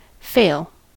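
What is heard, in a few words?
"fail", US English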